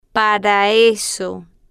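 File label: "para eso", Spanish, female, slow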